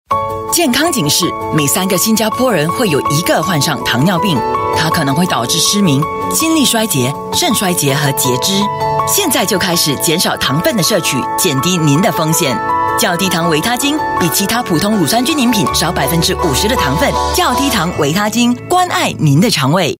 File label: Mandarin, China Female; VITAGEN Less-Sugar